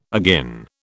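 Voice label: "agin", British English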